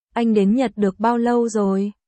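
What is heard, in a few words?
Vietnamese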